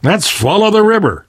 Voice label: harbor blocked, survivor voice; Left 4 Dead 2; Source Engine; video games; namvet